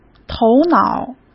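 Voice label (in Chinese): "头脑", Mandarin; chinese flashcards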